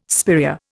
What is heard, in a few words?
"spiraea", Indian